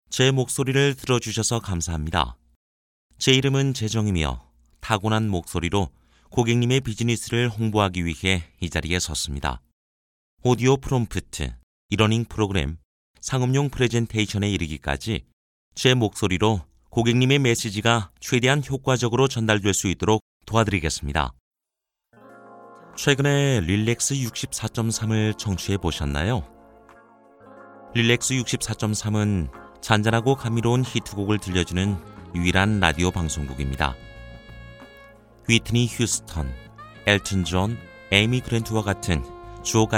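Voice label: M, Korean, male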